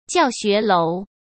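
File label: "教学楼" Mandarin Chinese; chinese flashcards; hsk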